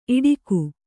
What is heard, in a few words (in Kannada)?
"ಈಡಿಗ", Kannada